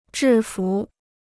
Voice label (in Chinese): "制服", Chinese